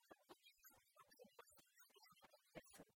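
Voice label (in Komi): кор комияс овмӧдчӧмаӧсь вылыс эжва инъясӧ, Komi, female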